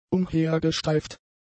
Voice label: "umhergestreift", German; adjectives